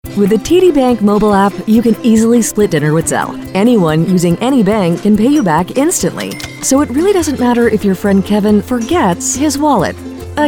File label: Commercial